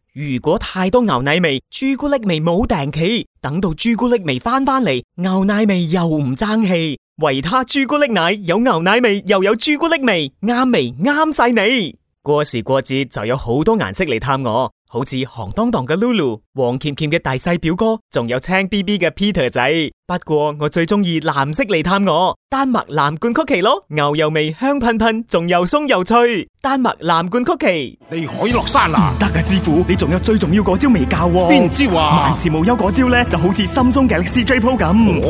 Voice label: chinese cantonese commercial